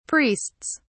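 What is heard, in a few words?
"priests", British English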